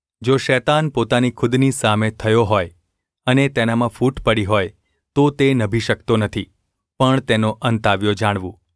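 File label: gu ulb mrk, Gujarati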